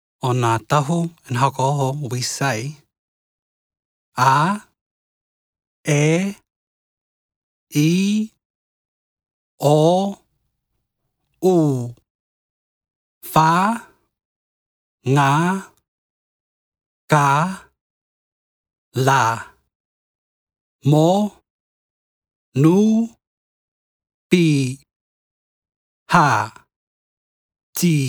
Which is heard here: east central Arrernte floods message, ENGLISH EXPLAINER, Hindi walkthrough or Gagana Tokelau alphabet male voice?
Gagana Tokelau alphabet male voice